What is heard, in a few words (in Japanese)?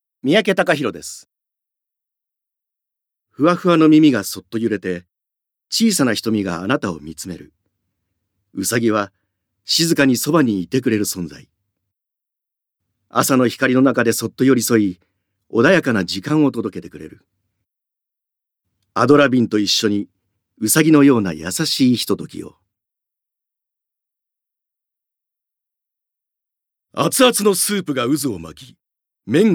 ナレーションALL